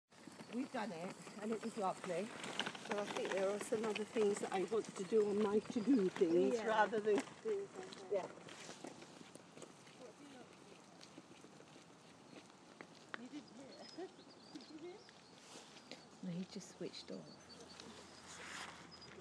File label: talking woman